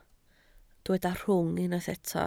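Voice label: "rhwng" Welsh, spoken word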